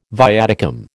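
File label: "viaticum", American English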